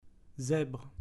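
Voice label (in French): "zebre", French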